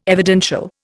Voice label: "evidential", Indian

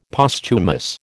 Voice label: "Posthumous", American

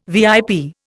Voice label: "VIP", Indian

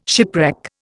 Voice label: "shipwreck", Indian

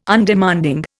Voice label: "Undemanding", Indian

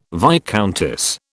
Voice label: "viscountess", British

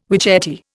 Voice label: "witchetty", Indian

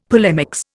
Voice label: "polemics", Indian; Dictionary

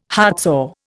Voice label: "heartsore", Indian

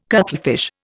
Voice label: "cuttlefish", Indian

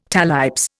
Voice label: "talipes", Indian

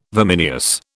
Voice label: "vimineous", British

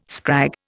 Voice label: "scrag", Indian

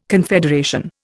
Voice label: "confederation", Indian

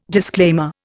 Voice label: "disclaimer", Indian

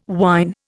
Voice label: "wine", Indian

